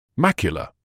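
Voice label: "macular", British English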